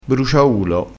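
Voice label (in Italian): "bruciaulo", dialettale crespinese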